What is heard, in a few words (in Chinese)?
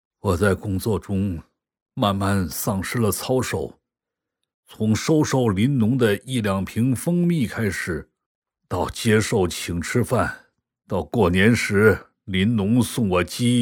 男 人物角色 同期声 中年官员忏悔; 男60-角色方言 质感磁性